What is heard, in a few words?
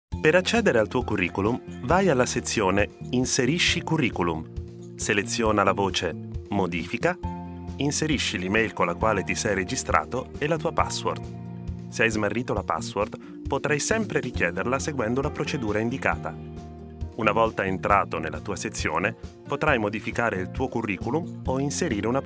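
e-learning Italian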